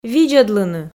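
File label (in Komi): "видзӧдлыны", Komi, female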